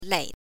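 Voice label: "lei", Mandarin Chinese, neutral tone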